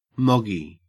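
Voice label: "moggy", Australian English; pronunciations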